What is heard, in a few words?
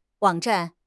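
"wang3 zhan4", Mandarin Chinese; HSK4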